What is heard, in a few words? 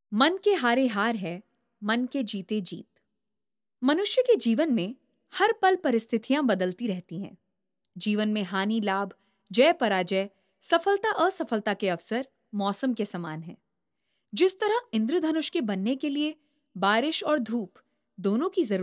Hindi Female